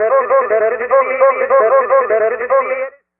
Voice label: Odd Voice; Sonic Foundry (Sony Creative Software); Electronic Point Blank; SFX